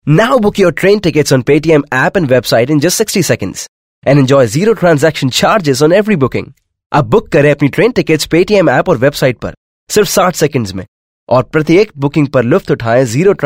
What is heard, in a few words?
English, male voice